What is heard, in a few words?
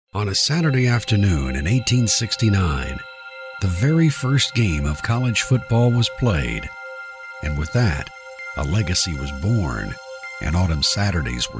Documentary Narration